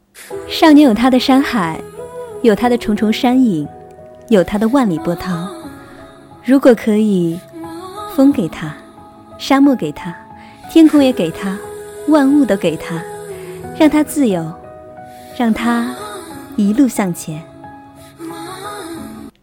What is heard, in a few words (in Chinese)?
少年欢快